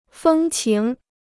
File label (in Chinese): "风情", Chinese